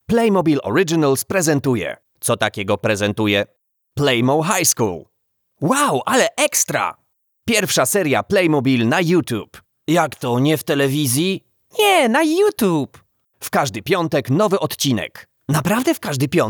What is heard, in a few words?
Commercial Character POL